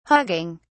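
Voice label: "hugging", English, uk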